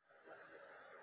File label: Voice Note